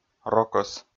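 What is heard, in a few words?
"рокӧс", Komi-Zyrian, male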